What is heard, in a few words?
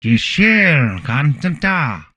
humanoid male; Stellaris; humanoids